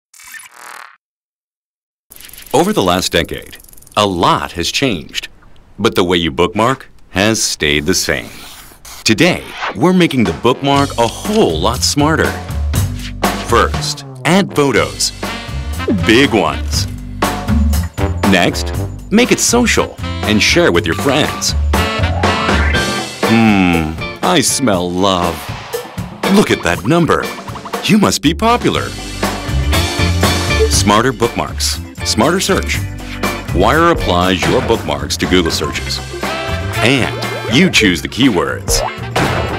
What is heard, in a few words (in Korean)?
영어 남 기본 톤; Beyond Bookmark